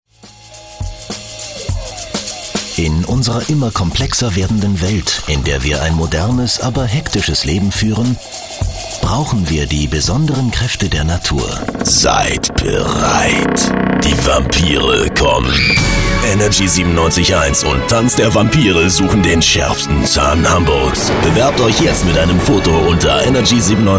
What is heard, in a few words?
German